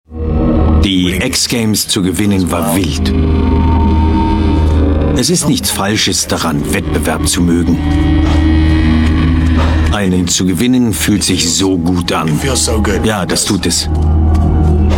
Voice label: german voice over male documentary

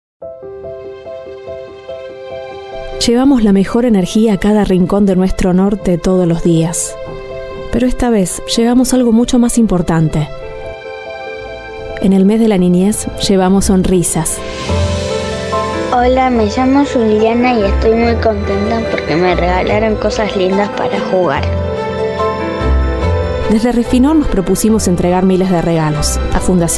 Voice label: spanish voice over female documentary

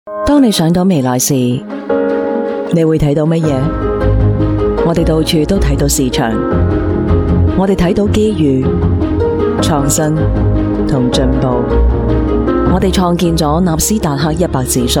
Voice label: chinese voice over female narrator

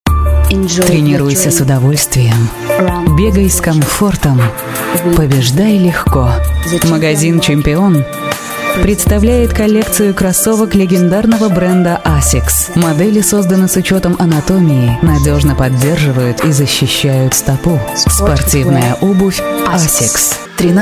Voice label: russian voice over female narration

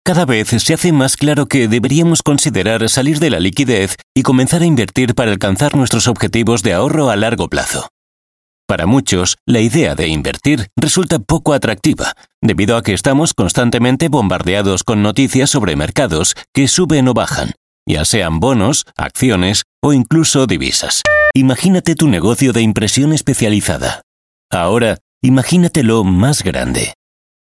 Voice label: spanish voice over male commercial

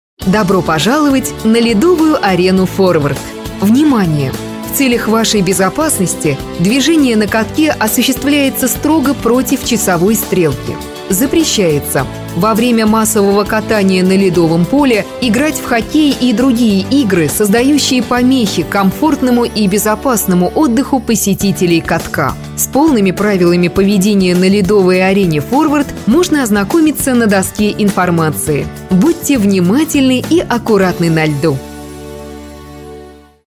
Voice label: russian voice over female narrator

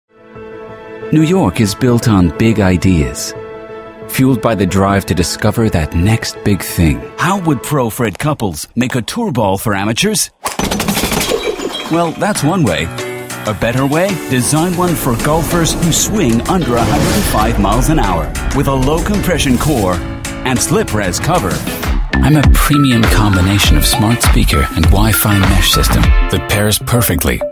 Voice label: english british voice over male narrator

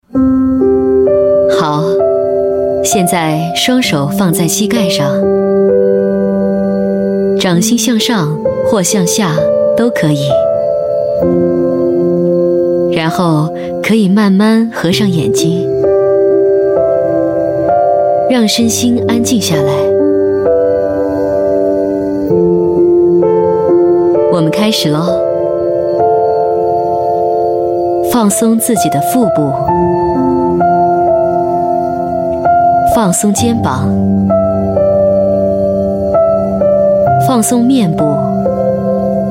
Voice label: chinese voice over female elearning

